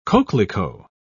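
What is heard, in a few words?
"coquelicot", British English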